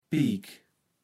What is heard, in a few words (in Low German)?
"piek", kaevels platt